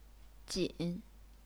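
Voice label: "jin", Chinese, third tone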